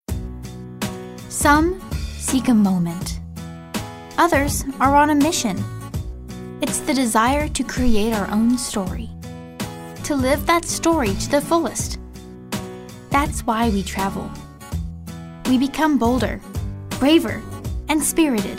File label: thoughtful